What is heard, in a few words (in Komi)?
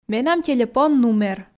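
менам телепон нумер, Komi, female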